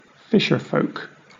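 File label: "fisherfolk", British English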